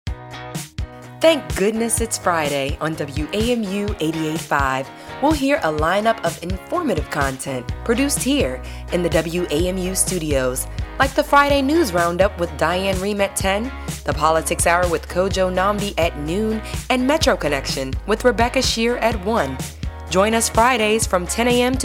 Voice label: Promo